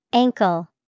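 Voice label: "ankle", English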